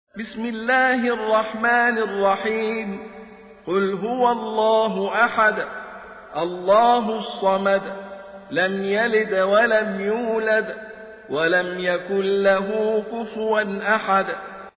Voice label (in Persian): ترتیل; سوره اخلاص